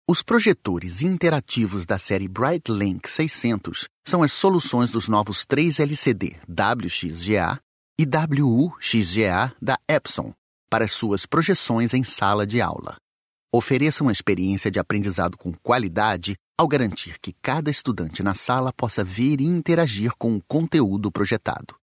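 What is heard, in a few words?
Brazilian Portuguese, male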